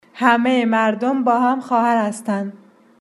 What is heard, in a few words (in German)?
persisch